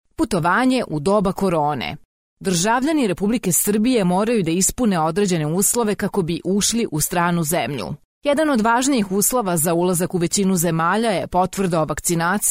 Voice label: serbian ivr